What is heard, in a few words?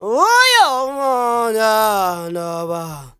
ZULU MALE; Spectrasonics; Heart of Africa 2; S.MALEDRY